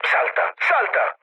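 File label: Italian bailout mood low, speech; War Thunder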